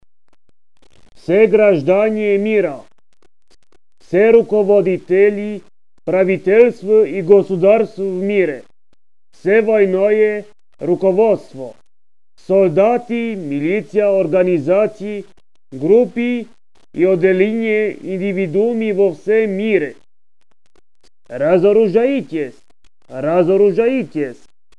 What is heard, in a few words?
global disarm call, Russian